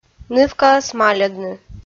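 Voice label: "нывкаӧс мальӧдны", Komi-Zyrian, female; audio dict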